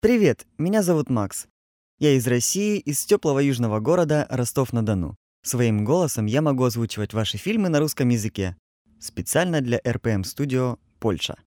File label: russian male voiceover locutor ruso